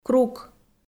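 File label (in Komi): "крук", Komi-Zyrian, female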